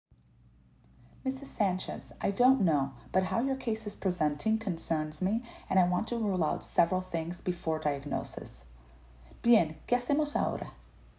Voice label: Medical Certified Oral Spanish Practice